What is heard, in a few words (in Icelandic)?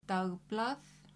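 "dagblað", Icelandic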